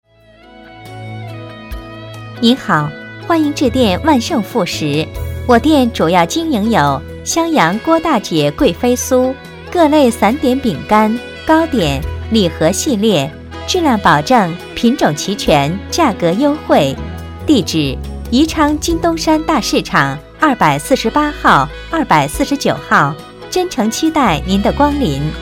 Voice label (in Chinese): 女, 彩铃; 万盛副食合成